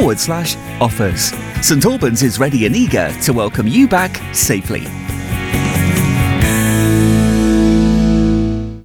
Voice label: spring into hospitality male; St Albans; Hertfordshire's Mix 92.6